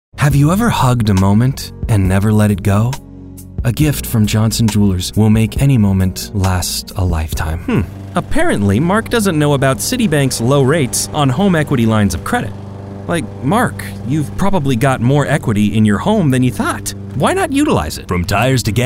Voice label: Corporate stereo, Younger Adult (30s) Articulate, Friendly